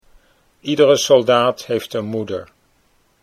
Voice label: "iedere soldaat", Dutch